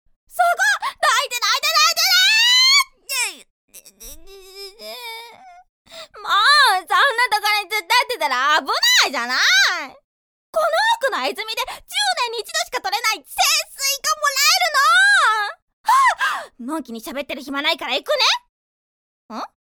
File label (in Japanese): おっちょこちょいな妖精